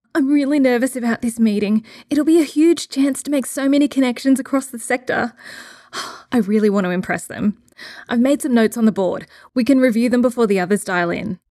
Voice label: Educational game character sample